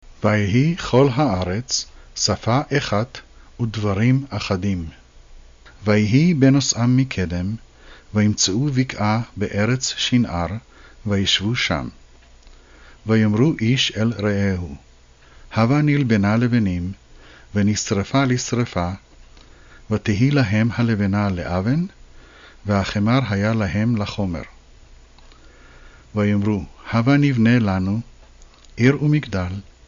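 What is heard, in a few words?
Hebrew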